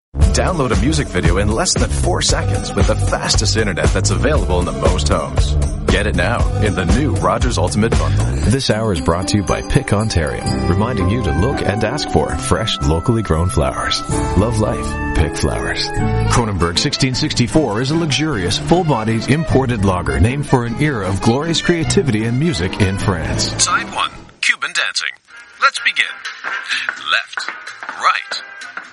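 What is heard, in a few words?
English Canadian M Ad; accent of canada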